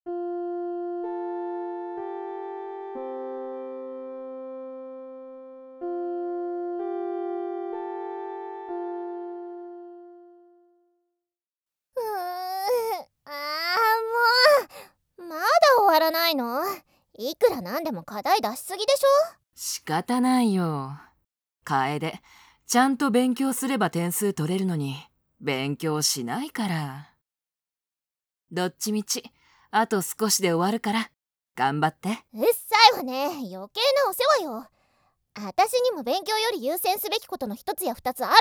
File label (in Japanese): 掛け合い; 隣の彼女はイケメン？ツンデレ？